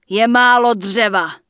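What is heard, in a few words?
Human Female Standard NPC Vendor, Czech; wowpreklad; czwow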